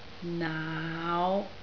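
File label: "naau5", Cantonese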